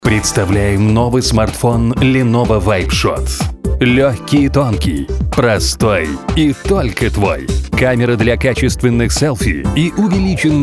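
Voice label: Russian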